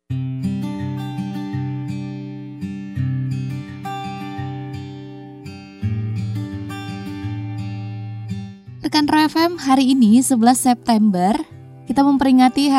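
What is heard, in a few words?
Indonesian casual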